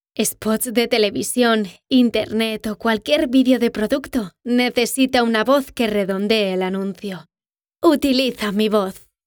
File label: PUBLI